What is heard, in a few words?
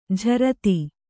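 "jharati" Kannada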